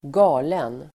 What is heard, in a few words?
"galen", Swedish